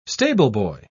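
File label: "stableboy", British English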